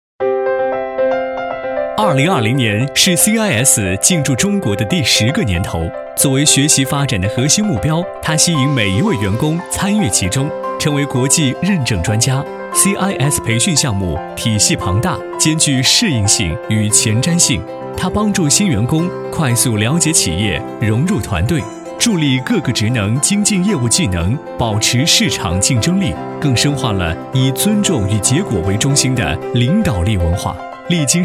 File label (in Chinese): 男 课件解说; CIS培训项目